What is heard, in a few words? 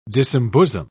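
"disembosom", British English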